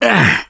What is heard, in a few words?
Hurt Minor, russian; Gambler